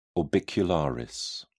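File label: "orbicularis", English, British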